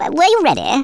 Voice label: "brilliant", speech; Worms Armageddon